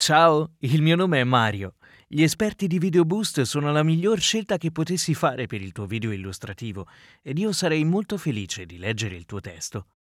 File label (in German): italienisch, voiceover